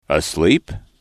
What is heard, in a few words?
"asleep", english